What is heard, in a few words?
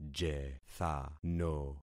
"jethano", concat syllables; Affixing experiment